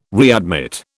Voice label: "readmit", British